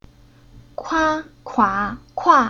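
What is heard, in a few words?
"Kua", Chinese syllable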